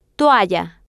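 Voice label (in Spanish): "toalla", Spanish, female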